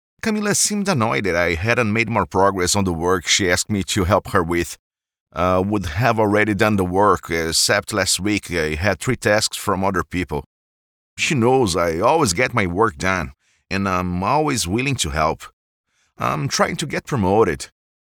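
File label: Brazilian Portuguese, male, English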